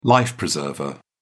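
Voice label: "life preserver", British English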